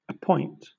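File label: "appoint", British English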